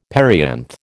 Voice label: "perianth", American

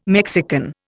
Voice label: "Mexican", Indian

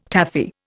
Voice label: "Taffy", Indian

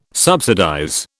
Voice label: "subsidize", British

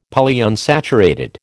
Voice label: "Polyunsaturated", American

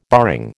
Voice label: "barring", American

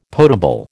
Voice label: "potable", American

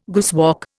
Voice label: "guesswork", Indian

